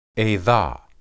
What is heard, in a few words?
"edah", Hebrew